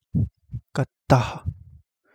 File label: Kapingamarangi; great frigate bird